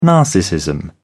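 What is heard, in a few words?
"narcissism", British English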